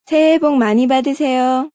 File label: New Year Korean, Female; Holiday Sound Effects